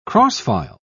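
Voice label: "cross-file", British English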